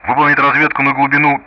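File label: Speech Russian; DCS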